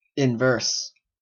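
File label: "inverse", Canadian English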